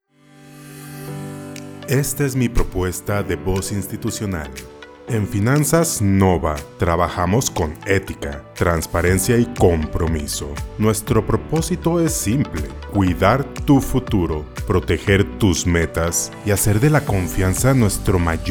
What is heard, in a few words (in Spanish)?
Institucional Musicalizado